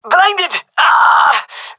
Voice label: blinded, gendermerie male